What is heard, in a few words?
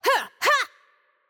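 voice over, Hungarian; Change Player; bloom minigame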